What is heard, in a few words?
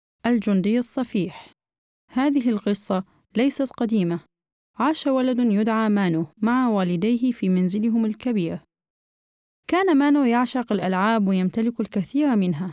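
arabic female narration, voice demos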